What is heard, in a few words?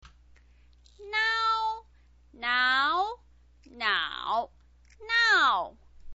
"nao", chinese pinyin